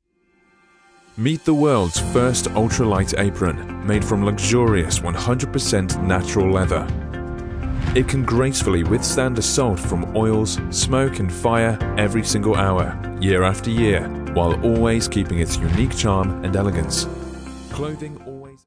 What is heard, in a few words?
English voice over